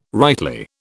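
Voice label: "rightly", British English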